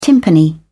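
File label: "timpani", British English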